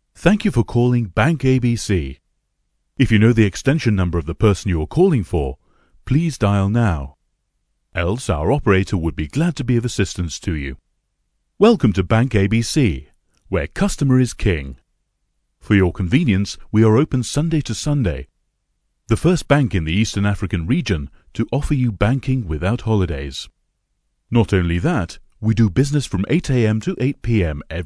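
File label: English British male voice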